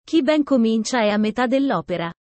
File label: italian sayings about life